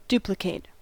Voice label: "duplicate", us